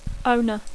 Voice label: "owner", English